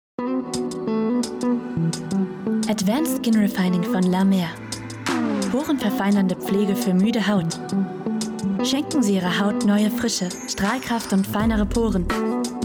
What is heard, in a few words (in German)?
Werbung MUSIK